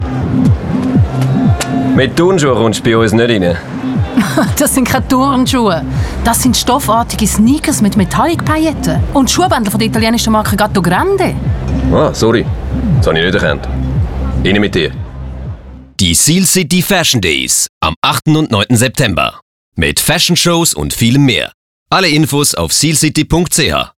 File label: Radiospot